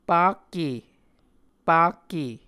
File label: "pakke", Muscogee; A Dictionary of Creek/Muskogee; SIL International